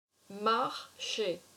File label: "Marcher", french